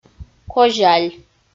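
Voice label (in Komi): "козяль", Komi, female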